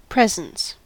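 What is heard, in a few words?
"presence", US English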